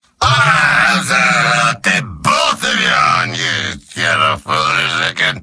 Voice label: gibberish

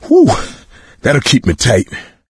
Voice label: pain relief pills, coach, survivor voice; video game; source engine; left 4 dead 2

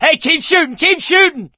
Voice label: battle cry tank, survivor voice; source engine; left 4 dead 2

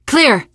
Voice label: area clear, teen girl; voice; source engine; left 4 dead 2; survivor

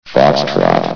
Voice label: "foxtrot", vox; Source Engine; bshift

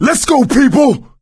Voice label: emphatic go, survivor voice; source engine; left 4 dead 2

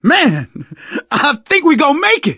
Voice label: hurrah, survivor voice

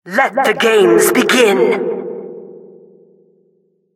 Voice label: announcer game starting

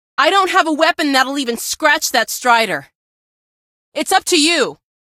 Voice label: strider scratch loud; vo; source engine; episodic; episode 1; c17

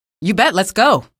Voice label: you bet, female; video games; npc; source engine; episodic; episode 1

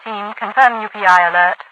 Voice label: confirm upi alert, radio voice; Source Engine; video game; npc; overwatch

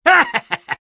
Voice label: sniper taunt rps win; video games; source engine; tf; taunts

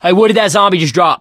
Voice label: fallen survivor, survivor voice

